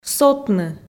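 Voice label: "сотны", Komi, female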